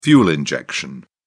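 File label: "fuel injection", British English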